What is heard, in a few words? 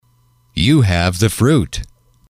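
you have the fruit, English, male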